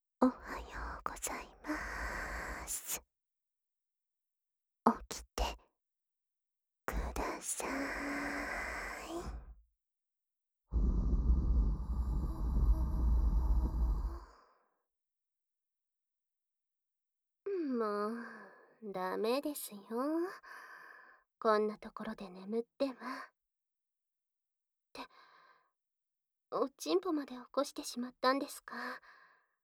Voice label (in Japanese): 目覚まし ボイス